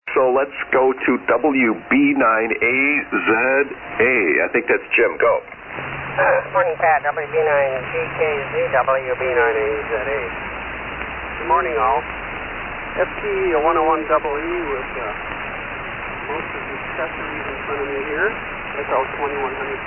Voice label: Yaesu FT-101EE & FL-2100B Amp; Cottoge Grove, Wi.

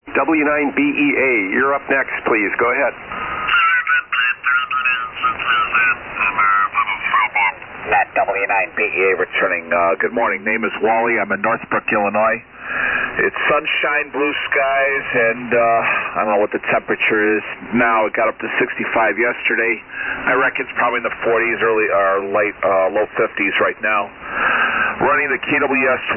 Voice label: Collins KWS-1 & 75A-4; Northbrook,IL.

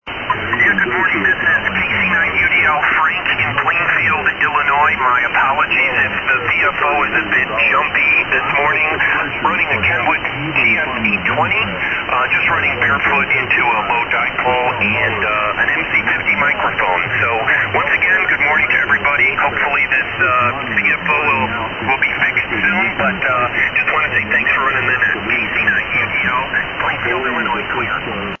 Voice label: Kenwood TS-820 & MC-50 Mic; Plainfield, IL.

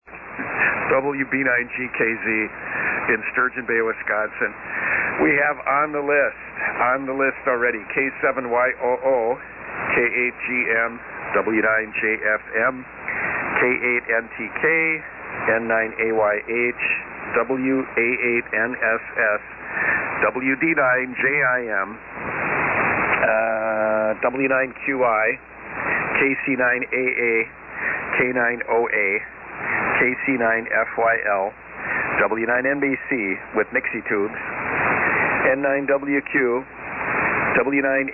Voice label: SSB Net Check Ins

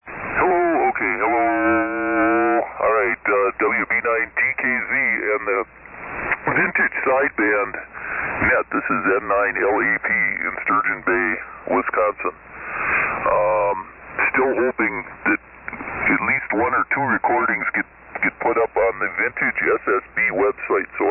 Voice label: Hallicrafters HT-32B SX-115 & Loudenboomer Amp; Sturgeon Bay, Wi.

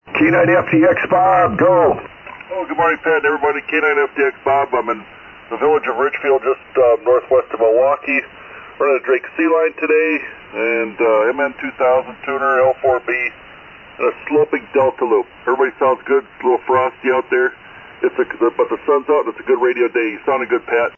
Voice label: Drake C-Line & Drake L4-B Amp; Colgate, Wi.

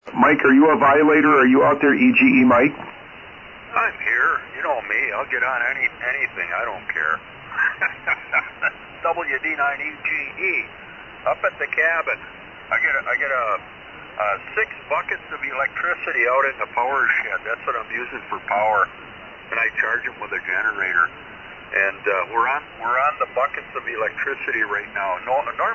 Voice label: Vintage Icom IC-706; Green Bay, Wi.

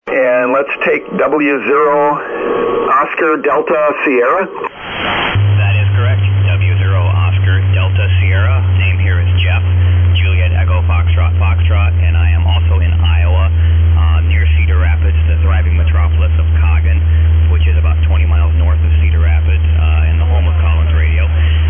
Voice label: Heathkit Cheyenne D-104 Mic; Coggon, IA.

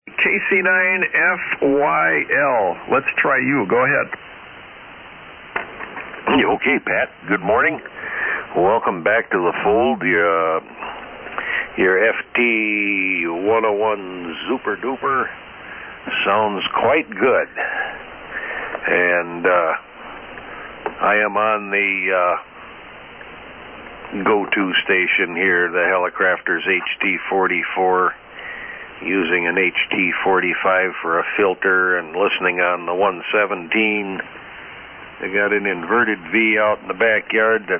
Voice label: Hallicrafters HT-44 HT-45 SX-117; Fort Atkinson, Wi.

